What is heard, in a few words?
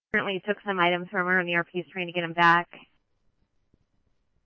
Scottsdale Police, scanner; SPD - PD1; RWC; H-Scottsdale